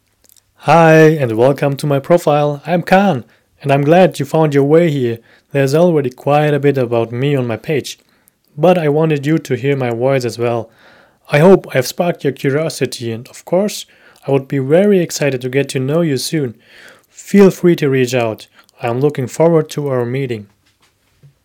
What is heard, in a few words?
English